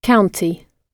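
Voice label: "county", British English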